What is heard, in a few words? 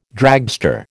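"dragster", American English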